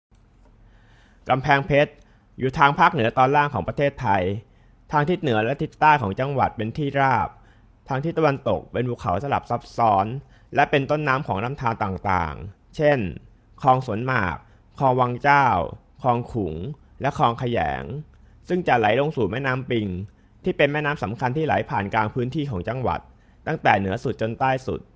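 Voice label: Thai Male, voiceover; Multilingual Voiceover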